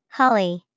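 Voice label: holly, English vocabulary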